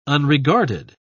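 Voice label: "unregarded", British English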